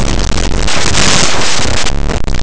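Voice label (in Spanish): "roca", Spanish; laboratori fonetica; vibrants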